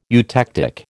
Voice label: "eutectic", American